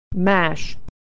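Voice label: "mash", American English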